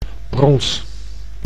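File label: "brons", Dutch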